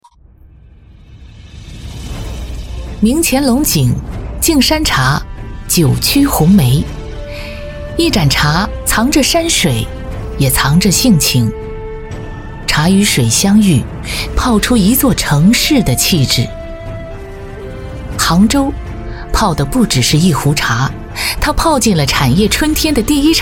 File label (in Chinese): 女 宣传片; 杭州产业春天